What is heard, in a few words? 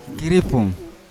"kiripum" lizard, Yawa